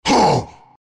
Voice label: pain; dota; furion